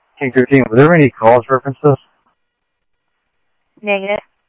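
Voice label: police radio scanner traffic, Mesa Simulcast; MesaPoliceDep; MESA PD 1 Fiesta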